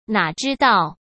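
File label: "哪知道", Mandarin Chinese; chinese flashcards; hsk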